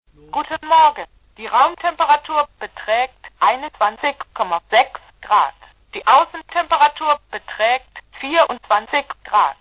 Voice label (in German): Sprachausgabe Innen Aussenthermometer